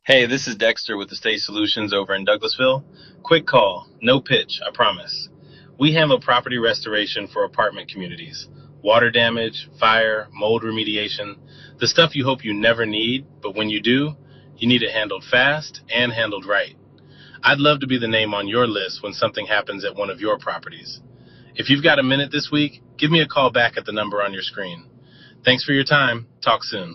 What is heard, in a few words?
voicemail